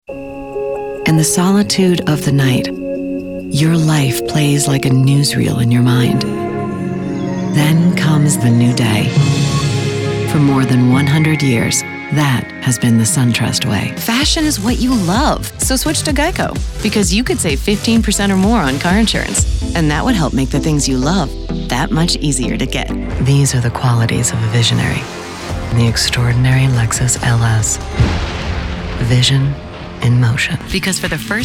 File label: Commercial